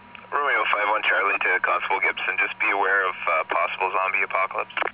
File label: police zombie apocalypse warning